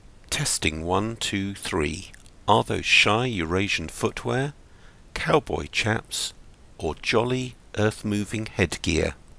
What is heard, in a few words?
test EPOS SC 165 USB mono